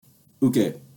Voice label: "Uke", basic japanese words; Basic Japanese Terms; karate